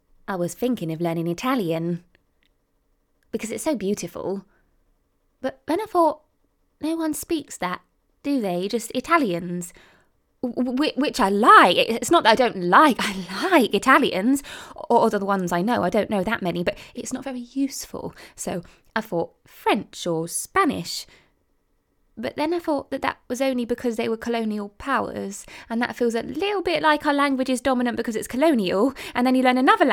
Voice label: Accent Character